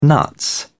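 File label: "nuts", English, British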